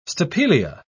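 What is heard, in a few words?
"stapelia", British English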